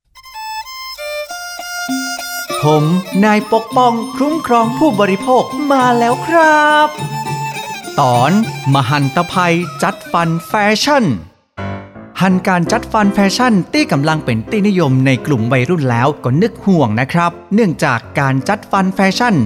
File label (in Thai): สปอตวิทยุ ภาคเหนือ; สื่อประชาสัมพันธ์; สคบ.; สำนักงานคณะกรรมการคุ้มครองผู้บริโภค